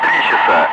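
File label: Russian speech, Ka-50 Wingman; DCS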